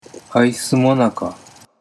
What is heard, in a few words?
"icemonaka", Japanese